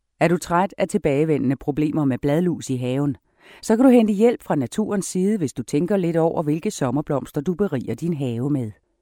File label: DANISH Female